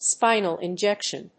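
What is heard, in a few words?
"spinal injection", English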